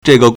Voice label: "zhe4ge4", Chinese

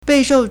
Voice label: "bei4shou4", Chinese

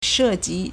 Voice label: "she4ji2", Chinese

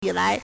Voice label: "yi3 lai2", Chinese

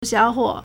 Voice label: "xiao3huo3", Chinese

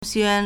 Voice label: "xuan1", Chinese

